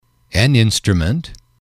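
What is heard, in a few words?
"instrument", English, male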